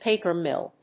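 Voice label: "paper mill", English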